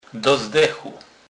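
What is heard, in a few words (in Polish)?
"do zdechu", Kurpie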